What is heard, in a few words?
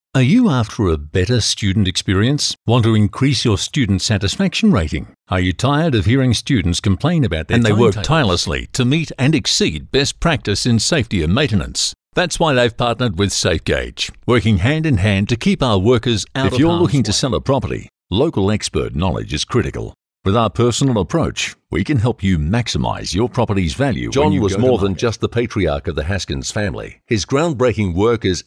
Natural